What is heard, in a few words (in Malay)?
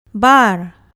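"bar", Malay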